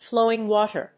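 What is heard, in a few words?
"Flowing water", English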